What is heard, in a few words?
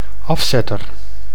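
"afzetter", Dutch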